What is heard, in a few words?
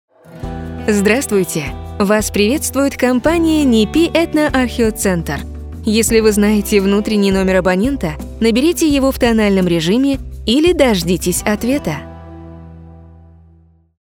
ivr